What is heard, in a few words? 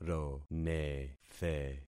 "ronethe", concat syllables; Affixing experiment